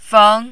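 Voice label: "feng", second tone, Mandarin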